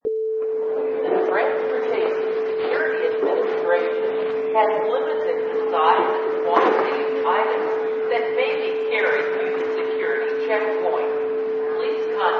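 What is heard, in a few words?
Airport Security Announcement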